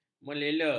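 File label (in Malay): "meleler", Malay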